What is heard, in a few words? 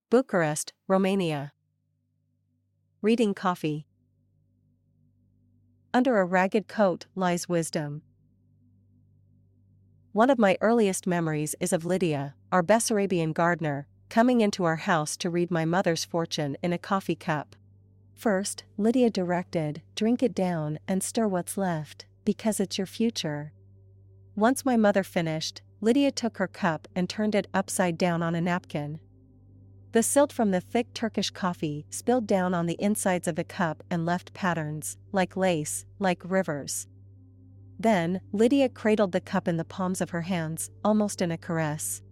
voiceover